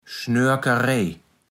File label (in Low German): "Schnoerkerej", platt